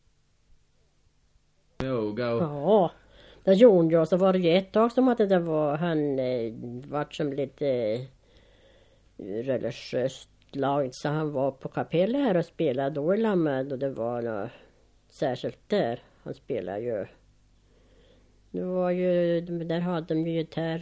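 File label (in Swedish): Sundsjö Jämtland, dialekter; Svenska sagor; Folkminnen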